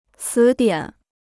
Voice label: "词典", Chinese